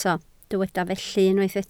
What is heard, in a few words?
"felly", Welsh